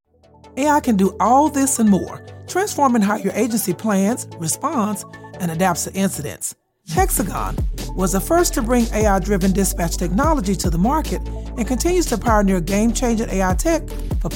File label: corporate ad; hexagon